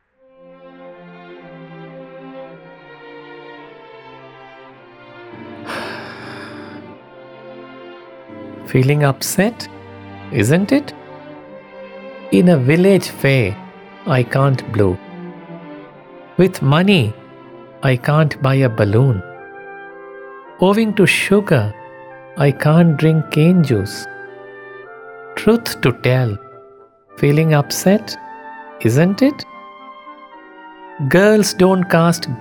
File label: promo english